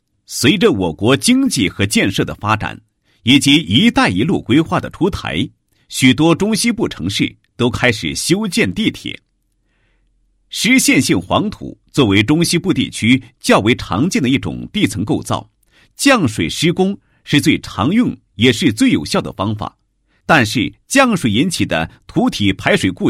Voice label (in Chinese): 男27-工程, 解说词; 西安地铁施工技术解说词